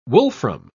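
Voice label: "wolfram", British English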